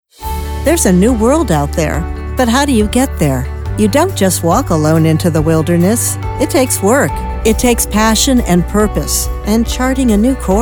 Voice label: corporate narration

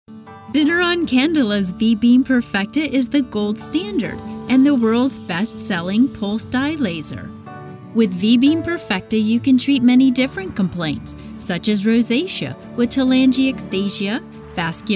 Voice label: Corporate Narration

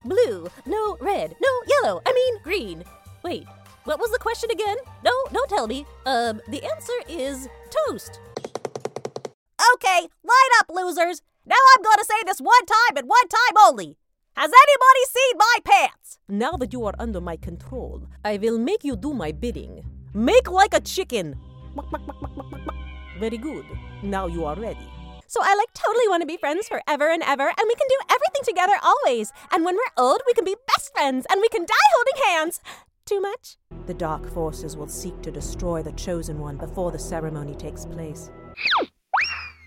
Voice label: Animation

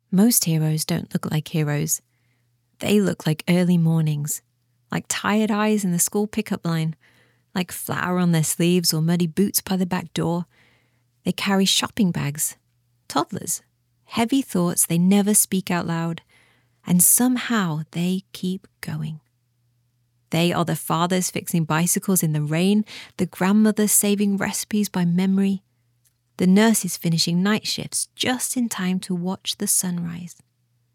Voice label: Voiceover Ad

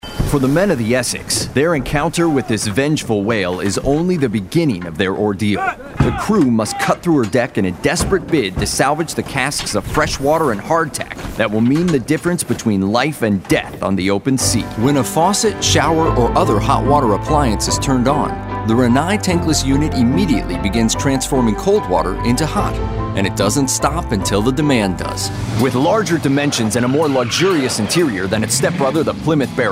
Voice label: Narration

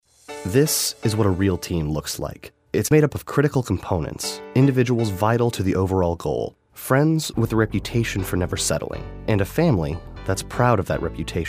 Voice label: Narration

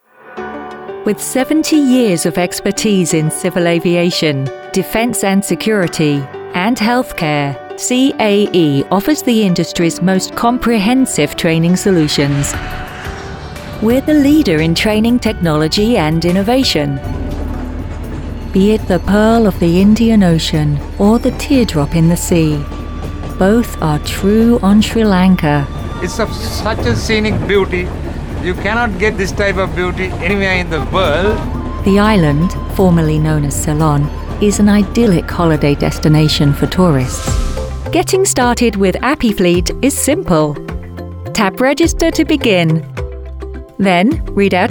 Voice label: Narration

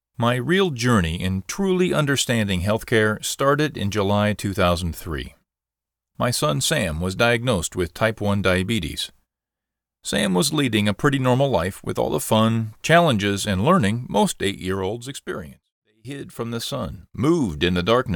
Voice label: Audiobook

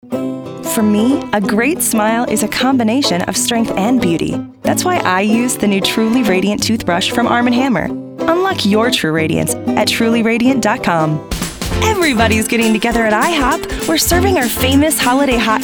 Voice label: Commercial

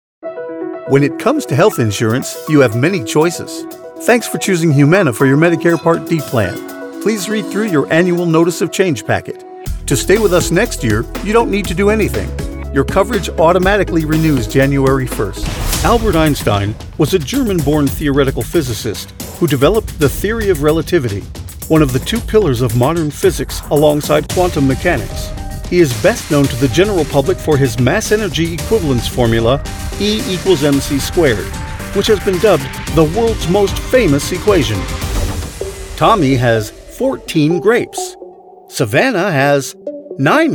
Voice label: Narration

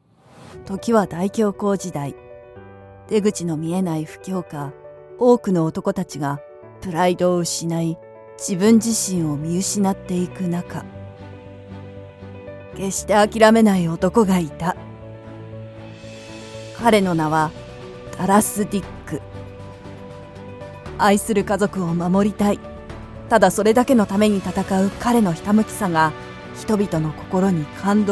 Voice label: Movie trailers - serious

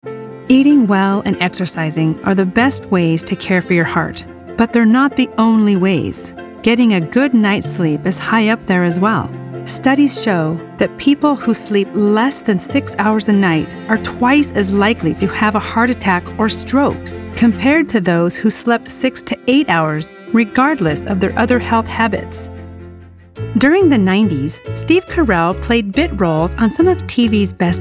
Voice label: Narrations

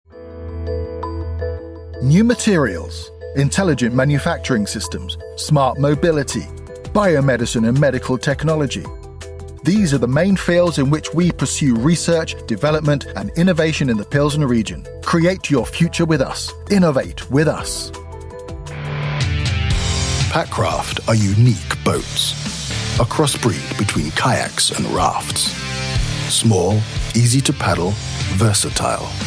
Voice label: Corporate

